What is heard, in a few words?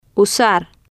"usar", Spanish